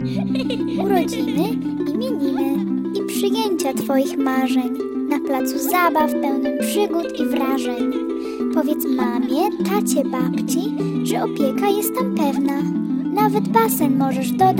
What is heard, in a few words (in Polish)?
reklama